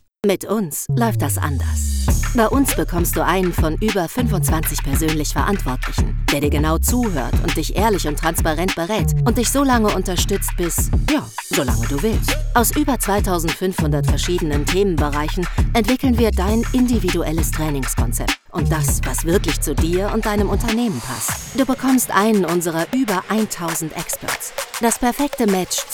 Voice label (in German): Imagefilm Weiterbildung, Off, German